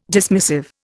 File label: "dismissive", Indian